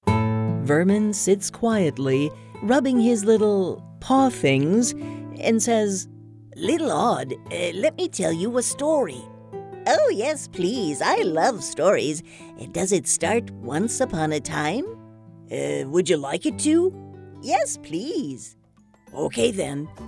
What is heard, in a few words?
Animation